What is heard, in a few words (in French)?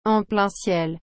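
"en plein ciel", French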